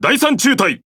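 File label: Speech Pilots Bomber, Japanese; World Of Warships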